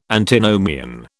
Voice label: "Antinomian", British